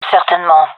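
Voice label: affirmation, gendarmerie female; vo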